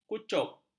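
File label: "kucup", Malay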